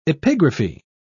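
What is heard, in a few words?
"epigraphy", British English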